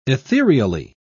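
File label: "ethereally", British English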